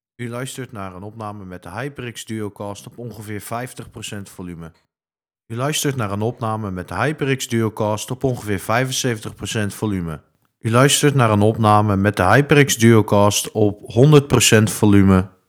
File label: DuoCast EQ Reverb Noise Gate; HyperX QuadCast S; review hyperx quadcast s